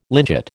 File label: "lynchet", American